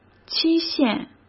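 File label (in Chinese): "期限", Mandarin Chinese; hsk; chinese-flashcards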